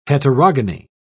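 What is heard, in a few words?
"heterogony", English, British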